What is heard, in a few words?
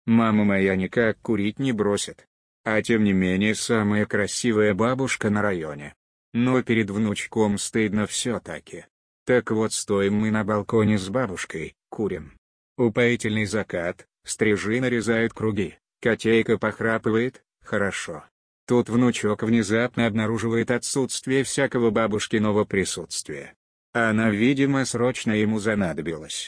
russian babushka